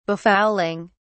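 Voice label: "befouling", en, uk British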